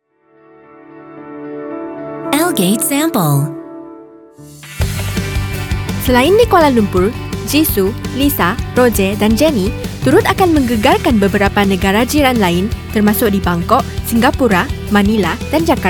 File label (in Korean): 말레이 여 밝은톤